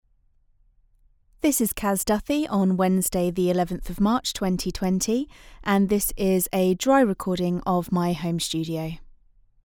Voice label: dry studio sample